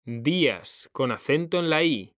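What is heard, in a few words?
"DÍAS", Spanish; mekanta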